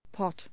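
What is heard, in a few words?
"pot", English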